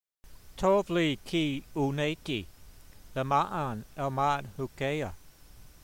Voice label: voice, hebrew language; Psalm 119:71; tov-lee kee-oo'naytee, le'ma'an el'mad hukeyha